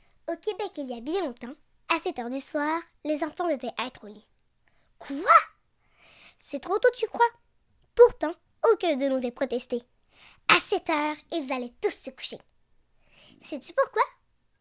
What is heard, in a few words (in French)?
Démo français québécois